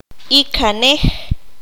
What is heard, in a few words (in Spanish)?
"tio el hermano mayor de su madre", Q'anjob'al; sustantivo inalienable; [i.ka.'nex]